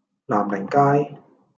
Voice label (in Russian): Голоса, Гонконгский